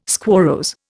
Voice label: "squarrose", Indian